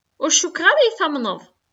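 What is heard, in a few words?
"Ur cukkeɣ ara ad iyi-tamneḍ.", Kabyle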